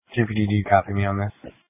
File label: Police Tactical Encrypted